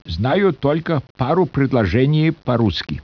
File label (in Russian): SPEECH, RUSSIAN